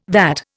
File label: "VAT", Indian